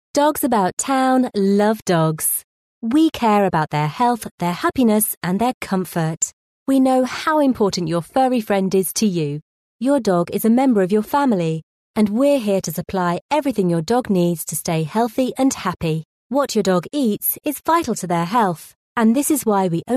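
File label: English, female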